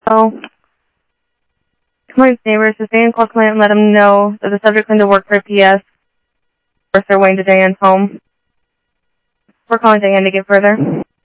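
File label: Sheriff West County, scanner audio trunk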